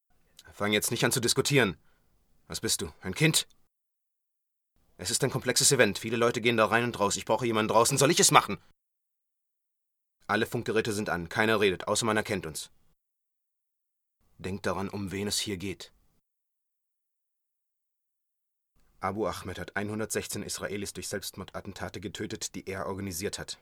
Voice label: dubbing, german, male